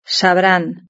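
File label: "sabrán", Spanish; verb-conjugator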